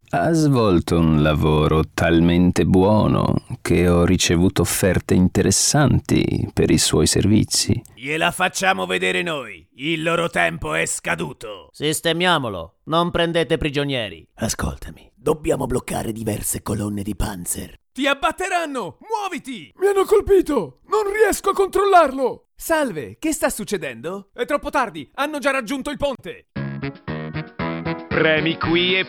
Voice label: italian games cartoons

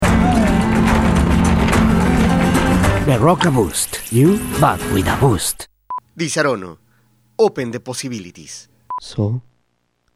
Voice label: english

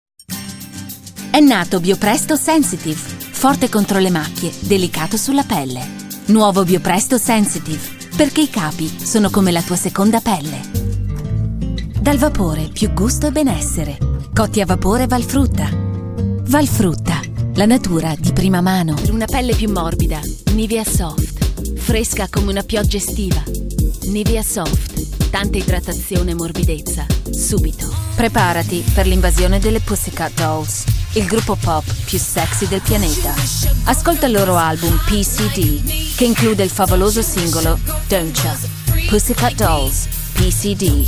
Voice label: italian coms

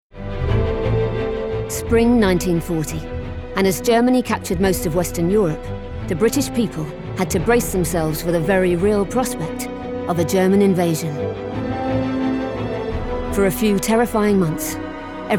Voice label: narrative

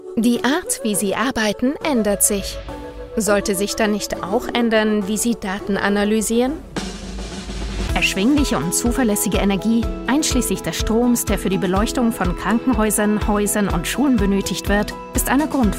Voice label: german corporate

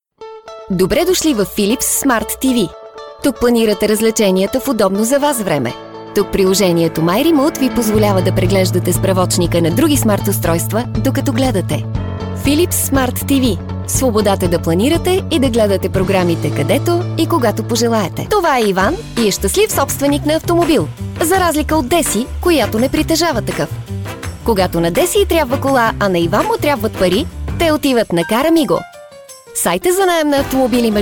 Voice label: Narration Bulgarian

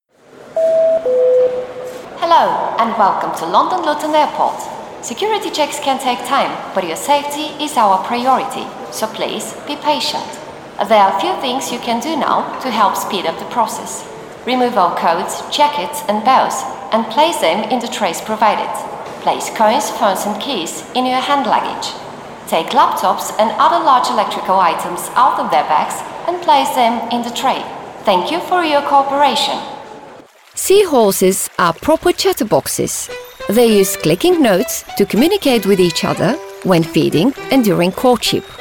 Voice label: English Narration

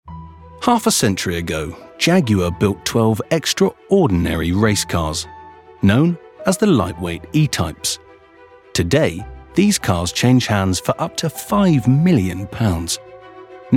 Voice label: documentary

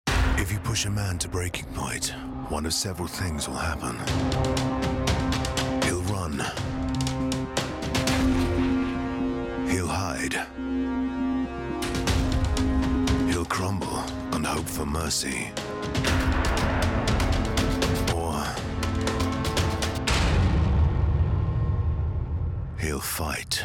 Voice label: film trailer

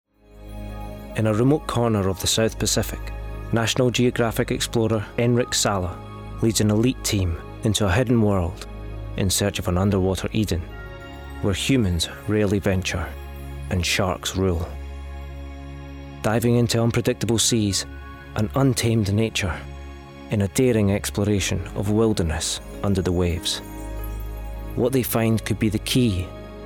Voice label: narration

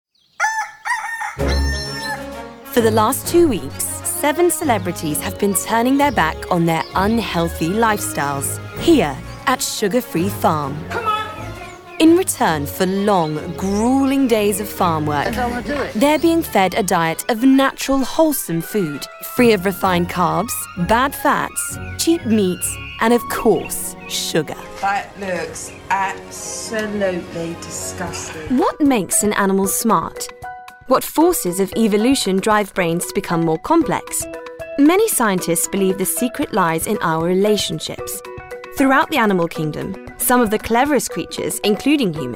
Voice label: narrative

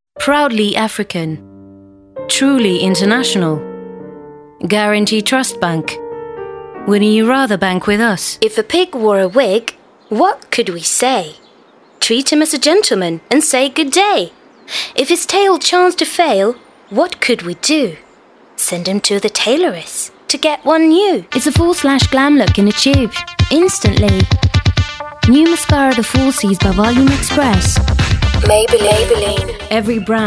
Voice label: uk

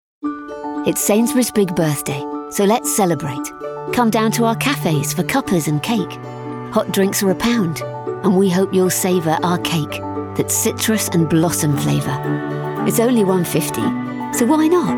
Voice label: commercial

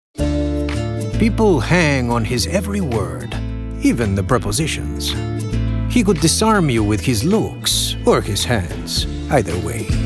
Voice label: hispanic spanish commercial

